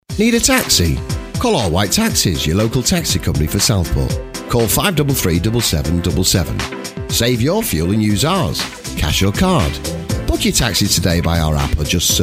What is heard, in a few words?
commercial